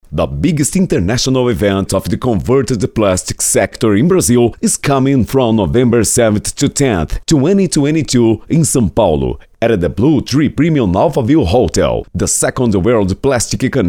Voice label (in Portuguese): Locutor Ingles; Think Plastic Brazil 2022